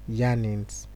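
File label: "yarnings", Nigerian Pidgin, male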